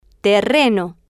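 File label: "terreno", Spanish, female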